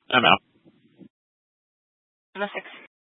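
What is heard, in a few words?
Incident Tactical, scanner audio recording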